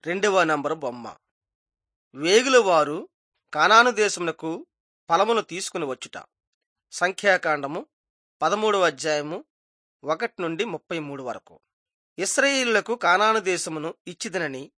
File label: telugu bangladesh